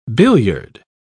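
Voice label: "billiard", English, uk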